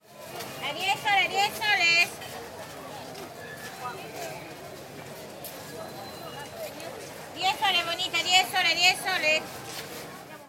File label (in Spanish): VENDEDORA AMBULANTE MERCADO DE BELÉN IQUITOS; Gente